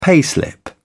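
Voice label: "payslip", British English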